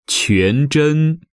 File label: "Quanzhen", Chinese